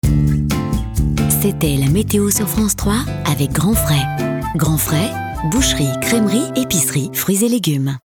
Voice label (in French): Billboard Meteo; France 3; Grandfrais